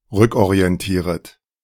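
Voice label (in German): "rückorientieret", German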